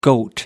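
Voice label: "goat", English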